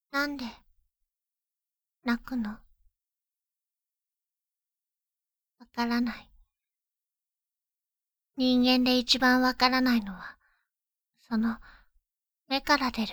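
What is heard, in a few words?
voice expressionless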